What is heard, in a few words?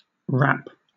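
"wrap", British English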